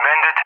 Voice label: "bandit", ENG; Allied Flight; DCS